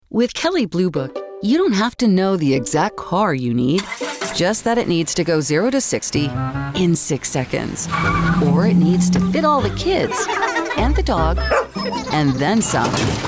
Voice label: Commercial